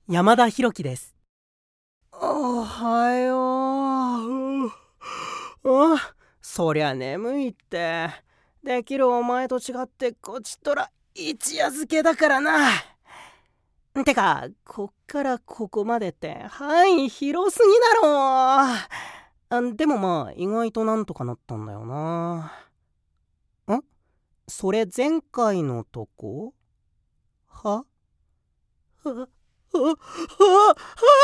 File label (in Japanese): 男子高校生; 出身地 ： 栃木県; 栃木弁